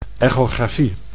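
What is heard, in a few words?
"echografie", Dutch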